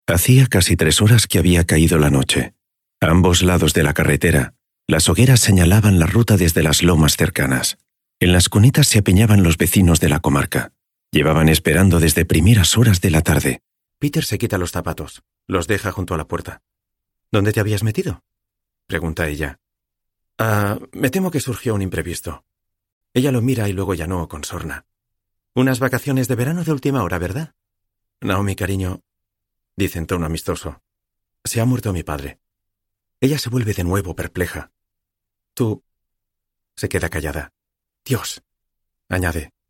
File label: spanish audiobooks